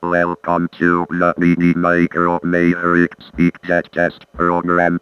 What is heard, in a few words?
speakjet; bdmicro speakjet